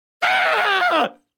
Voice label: Speech Quick Commands Caramba; World Of Warships